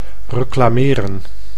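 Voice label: "reclameren", Dutch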